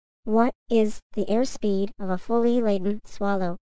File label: high voice child